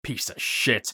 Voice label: battle cry; eft; usec